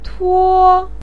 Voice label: "tuo1", pinyin; 挣脱; zhèng tuō